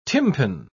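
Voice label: "tympan", English, British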